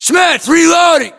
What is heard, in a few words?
inform reloading, US; battlechatter; english